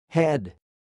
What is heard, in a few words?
"head", English